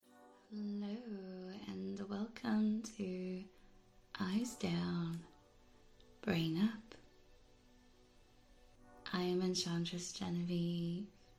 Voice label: ASMR sleepy dreamy sensual; Eyes down, brain up; F4M; positive; music